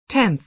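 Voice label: "tenth", American English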